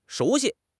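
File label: "shu2 xi1", Chinese; 汉语口语新时代-初级下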